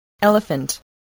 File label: "elephant", English